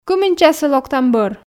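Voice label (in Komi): кымын часӧ локтам бӧр, Komi, female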